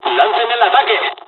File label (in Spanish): dialog, dialogs spanish; midway; War Thunder